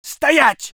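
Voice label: voice soviet infantry; Red Orchestra 41-45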